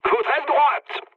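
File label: French right wing mood low; War Thunder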